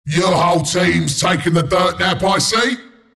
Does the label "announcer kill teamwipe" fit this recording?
Yes